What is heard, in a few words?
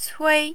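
"cui" Mandarin, tone 1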